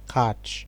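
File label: "catch", Nigerian Pidgin, male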